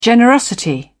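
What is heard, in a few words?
"generosity", British English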